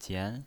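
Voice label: "jian", second tone, pinyin voice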